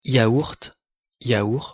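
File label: pronunciation "yaourt", French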